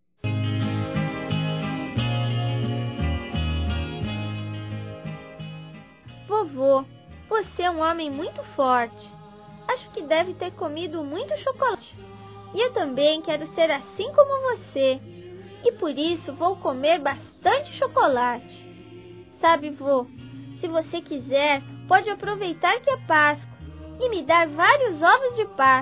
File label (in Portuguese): FELIZ PASCOA VOVO (VOZ INFANTIL), Telemensagens